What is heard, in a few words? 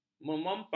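"memompa", Malay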